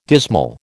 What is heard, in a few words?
"dismal", American